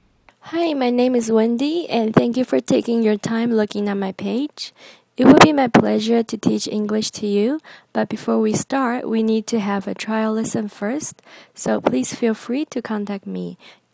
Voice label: voice message